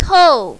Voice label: "tou4", mandarin, female